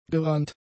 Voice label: "gerannt", German; adjectives